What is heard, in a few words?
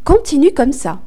way to go, fr; gcompris